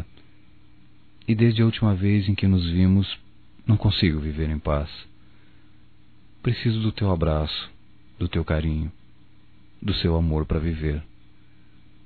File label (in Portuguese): DIA DAS MAES PERDÃO - M; Desculpas; masc; Telemensagens 2017